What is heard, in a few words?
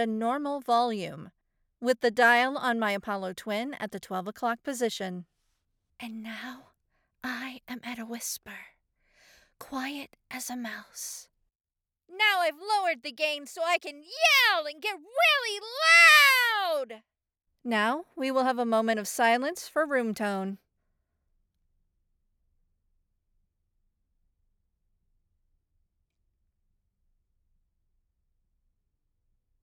Studio Raw Audio Sample